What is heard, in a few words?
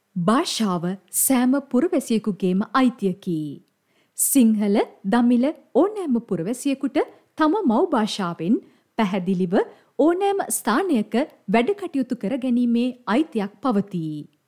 FEMALE NARRATION